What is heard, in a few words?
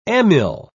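"amyl", British English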